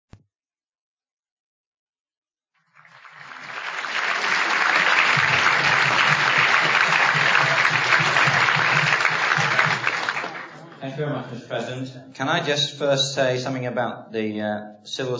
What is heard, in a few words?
Aircraft Control, liveaudio